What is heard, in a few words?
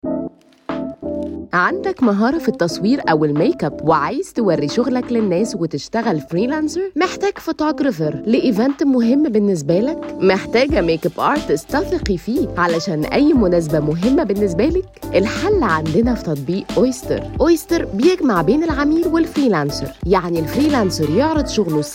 Arabic